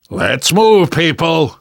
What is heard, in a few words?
move on, survivor voice